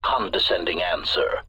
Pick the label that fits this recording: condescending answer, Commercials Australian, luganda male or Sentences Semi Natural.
condescending answer